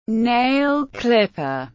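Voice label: "nail clipper", English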